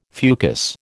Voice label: "fucus", American

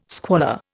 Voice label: "squalor", Indian

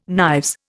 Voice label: "knives", Indian

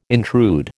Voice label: "intrude", American

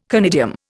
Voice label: "conidium", Indian

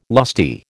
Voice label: "lusty", American

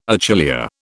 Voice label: "achillea", British

